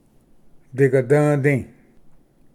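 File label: "digadvdi", Cherokee; ᏗᎦᏛᏗ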